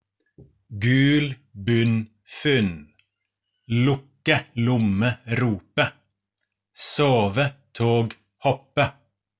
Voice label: vowel u o norwegian